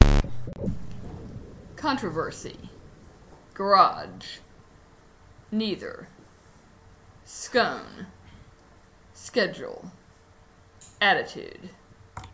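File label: Austin Texas female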